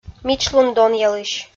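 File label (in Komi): "мичлун донъялысь", Komi, female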